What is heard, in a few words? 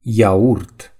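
"yogurt", Romanian; gcompris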